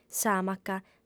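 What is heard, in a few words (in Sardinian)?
"s'àmaca", female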